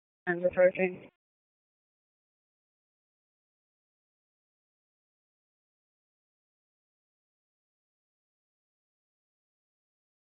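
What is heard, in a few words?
Public Safety Tactical, p25; wake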